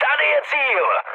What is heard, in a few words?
near waypoint, speech cze, mood high; War Thunder